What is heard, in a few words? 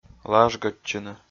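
"лажгӧдчыны", Komi-Zyrian, male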